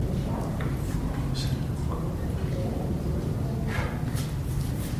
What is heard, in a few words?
whisper